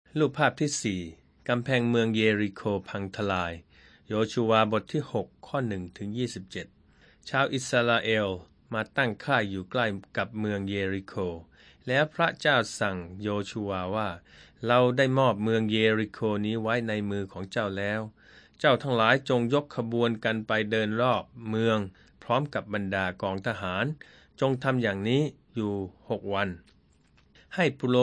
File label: thai central